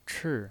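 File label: "chi", Mandarin Chinese pinyin voice, fourth tone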